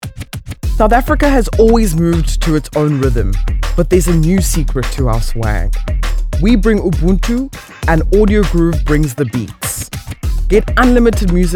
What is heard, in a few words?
Medium Sell Cool vibes